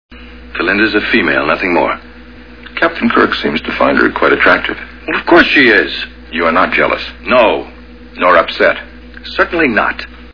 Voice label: female